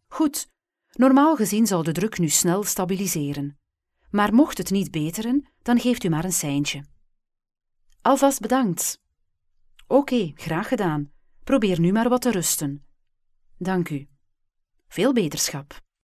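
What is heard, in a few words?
Flemish, female, voice over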